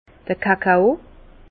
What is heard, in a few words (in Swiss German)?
"kakao", Bas Rhin; vin; cacao